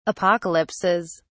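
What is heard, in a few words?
"apocalypses", British English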